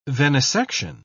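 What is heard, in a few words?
"venesection", British English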